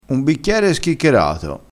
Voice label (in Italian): "schiccherato", crespinese